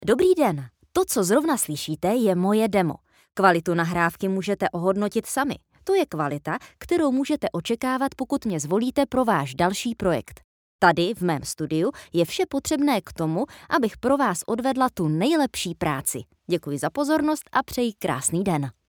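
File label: Czech, female, corporate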